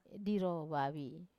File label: "diro vavi", sister-in-law, Wamesa